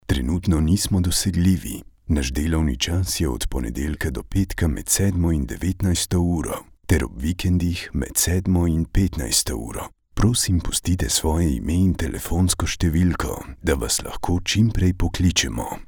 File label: slovenian ivr